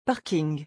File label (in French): "parking", French